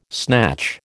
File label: "snatch", American